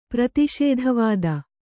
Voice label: "ಪ್ರತಿಷೇಧವಾದ", Kannada

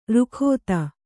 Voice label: "ರುಖೋತ", Kannada

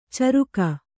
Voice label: "ಚರಿಗೆ", Kannada

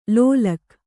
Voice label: "ಲೋಲಕ್ಕು", Kannada

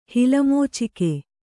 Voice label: "ಹಿಲಮೋಚಿಕೆ", kannada

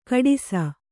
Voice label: "ಖೋಡಾಸು", Kannada

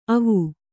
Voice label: "ಅವು", kannada

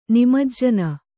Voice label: "ನಿಮಜ್ಜನ", Kannada; nimajjana; noun; a bathing.

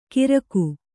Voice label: "ಗಿರಕು", Kannada

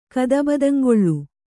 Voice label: "ಕದಬದಂಗೊಳ್", Kannada

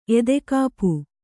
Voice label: "ಎದೆಕಾಪು", Kannada

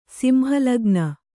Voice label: "ಸಿಂಹಲಗ್ನ", Kannada

